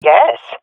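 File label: affirmation, swat female; vo; Counter Strike Model Pack; CS:GO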